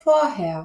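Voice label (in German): "vorher", German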